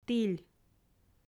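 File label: "тіль", Komi, female; audio dict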